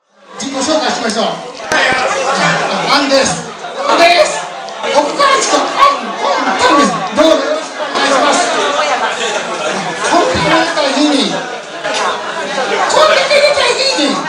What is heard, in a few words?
ventriloquist; 北大阪急行御堂筋線マラニック